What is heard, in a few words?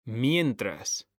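"mientras", Spanish, male; mekanta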